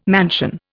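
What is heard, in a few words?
"mansion", Indian English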